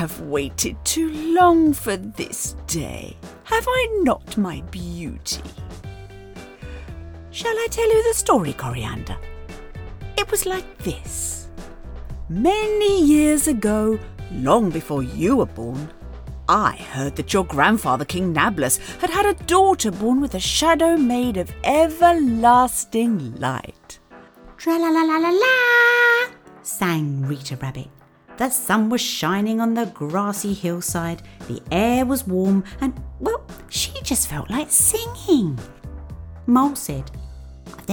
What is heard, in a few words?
Lovely Voice Children